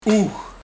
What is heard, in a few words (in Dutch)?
"Oeg", Oog